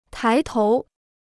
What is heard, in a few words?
"抬头", Chinese